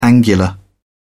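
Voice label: "angular", British English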